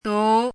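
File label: "dú", Mandarin Chinese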